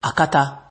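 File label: "akata", Nigerian Pidgin, male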